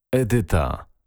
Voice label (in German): "edyta", polnische maedchennamen